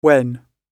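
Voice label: "when", British English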